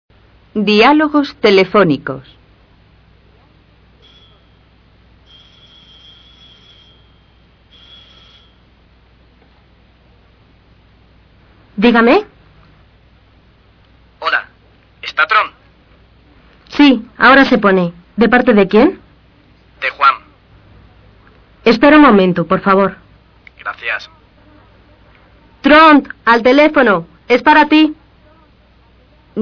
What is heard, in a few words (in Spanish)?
dialogos telefonicos